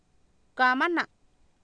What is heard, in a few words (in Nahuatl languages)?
"kaamana", Oapan, female; Oapan minimal pairs 2000